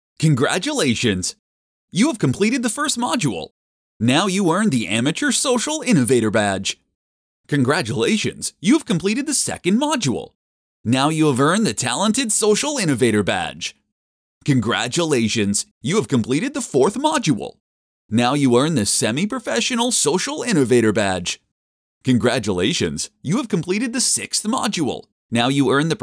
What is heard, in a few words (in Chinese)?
轻快 指示语